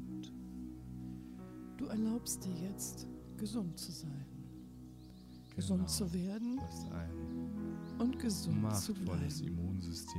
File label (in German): Trance Hoerprobe; Immunsystem staerken